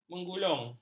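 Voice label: "menggulung", Malay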